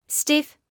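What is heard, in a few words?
"stiff", British English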